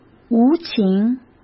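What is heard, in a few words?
"无情", Mandarin Chinese; chinese-flashcards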